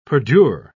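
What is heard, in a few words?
"perdure", British English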